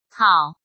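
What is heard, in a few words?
"Hǎo", Chinese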